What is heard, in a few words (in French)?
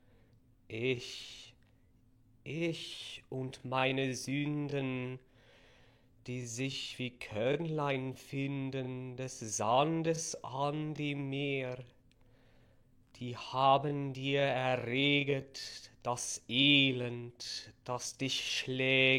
diction bass lent; pronociation chorals